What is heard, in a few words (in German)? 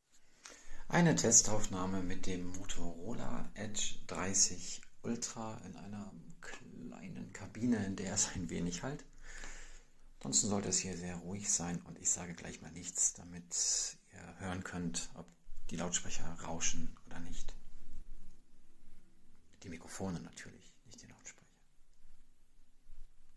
Motorola Edge 30 Ultra Testaufnahme